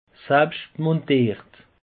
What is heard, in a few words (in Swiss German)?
"salbscht", alsace; peche